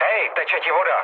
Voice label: damaged water, speech cze, mood low; War Thunder